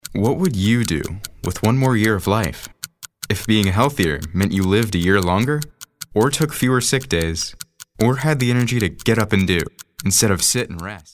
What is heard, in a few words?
thoughtful